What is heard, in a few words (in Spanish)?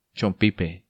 "Chompipe", Spanish